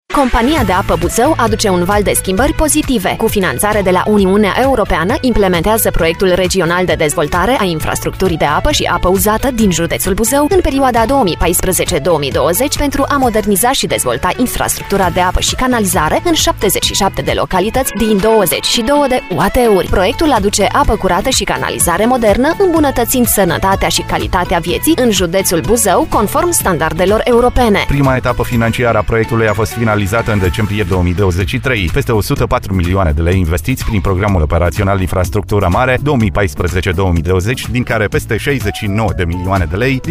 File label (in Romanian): Spot radio; Compania de Apa Buzau